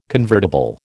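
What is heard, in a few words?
"convertible", American English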